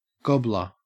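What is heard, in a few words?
"gobbler", Australian English; pronunciations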